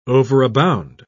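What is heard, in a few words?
"overabound", British English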